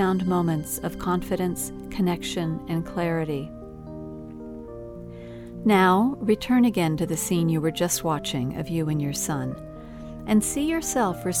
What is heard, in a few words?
Calm Authority Father